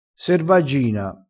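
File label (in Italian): "servaggina", vocabolario dialettale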